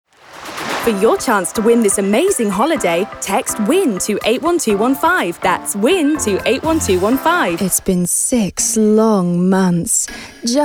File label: Commercial